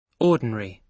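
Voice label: "ordinary", British English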